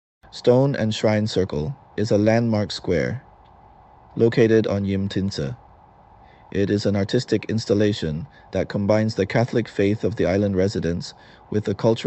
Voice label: English; Stone Shrine Circle